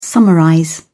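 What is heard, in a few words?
"summarize", British English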